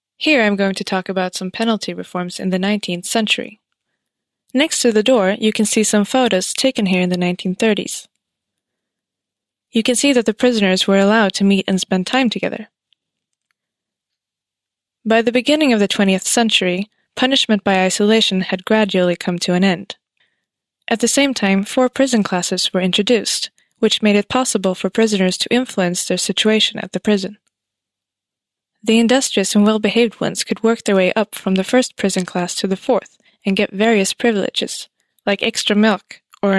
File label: cell, audioguider; eng